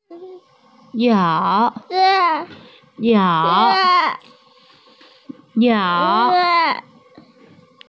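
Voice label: "niao3", Mandarin Chinese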